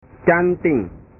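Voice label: "canting", Indonesian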